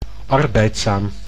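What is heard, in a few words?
"arbeidszaam", Dutch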